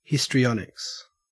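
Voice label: "histrionics", Australian English; pronunciations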